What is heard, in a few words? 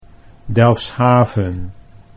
"Delfshaven", Dutch